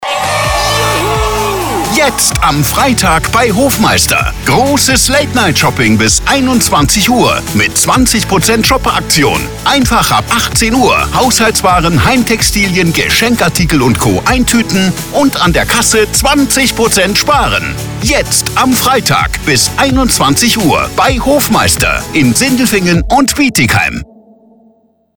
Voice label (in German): Late Night Shopping, radiowerbung; Moebel Hofmeister